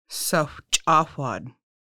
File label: Club "səxʷc̓axʷad", Lushootseed